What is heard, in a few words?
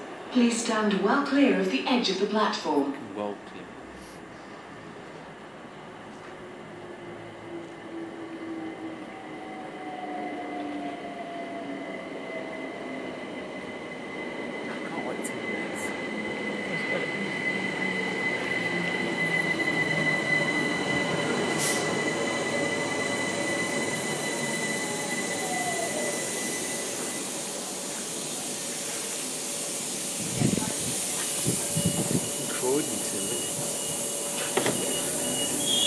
overground last train robot announcement; Hoxton